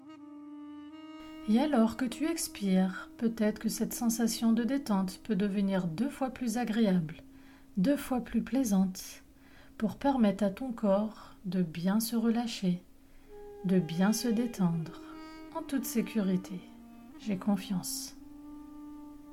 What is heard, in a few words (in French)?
meditation guidée pour une nuit de sommeil profond